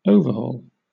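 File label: "overhaul", British English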